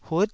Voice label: "hut9", Taiwanese syllable